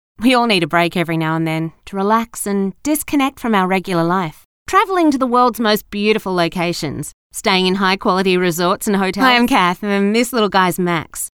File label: Natural